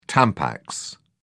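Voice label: "tampax", British English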